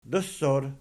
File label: "dossor", Archi